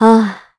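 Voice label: Vox Think, Korean; heroes; Hilda; kingsraid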